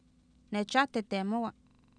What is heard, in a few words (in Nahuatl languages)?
"neexAahteteemOwa", Oapan, female; Oapan minimal pairs 1998